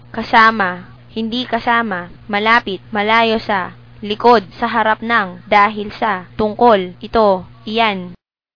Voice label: filipino; words2